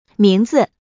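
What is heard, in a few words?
"mingzi", Mandarin Chinese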